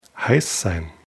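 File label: "heiss sein", German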